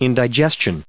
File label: "indigestion", us